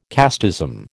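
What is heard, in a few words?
"casteism", American English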